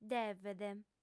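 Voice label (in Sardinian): "devet", female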